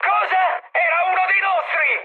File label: player killed ally mood high, speech it